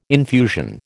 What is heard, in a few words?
"infusion", American